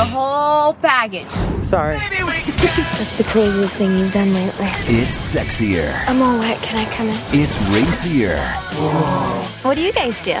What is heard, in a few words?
girl next door